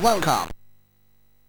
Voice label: Bouncy Balls speech, MOS 6581